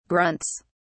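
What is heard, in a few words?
"grunts", British English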